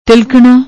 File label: "tilakanna", Punjabi